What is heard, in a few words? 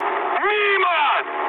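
Russian bomb failure mood high, speech; War Thunder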